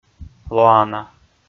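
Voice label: "лоана", Komi, male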